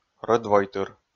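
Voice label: "рӧдвойтыр", Komi, male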